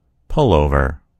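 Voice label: "pullover", American English; gcompris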